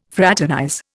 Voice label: "fraternize", Indian

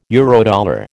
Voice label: "Eurodollar", American

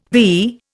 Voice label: "vee", Indian

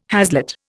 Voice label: "haslet", Indian

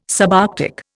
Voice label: "subarctic", Indian

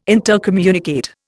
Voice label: "intercommunicate", Indian

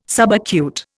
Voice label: "subacute", Indian

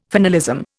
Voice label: "finalism", Indian

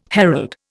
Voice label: "herald", Indian

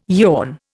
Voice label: "yawn", Indian

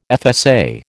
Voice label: "FSA", American

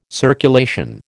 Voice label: "circulation", American

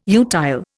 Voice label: "utile", Indian

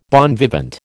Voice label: "bon vivant", American

